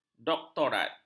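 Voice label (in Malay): "doktorat", Malay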